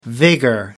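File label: "vigor", British English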